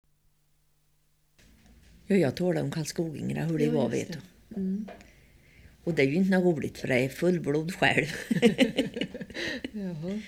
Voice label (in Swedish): Karlskoga, dialekter; Svenska sagor; Folkminnen